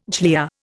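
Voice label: "glib", Indian; Dictionary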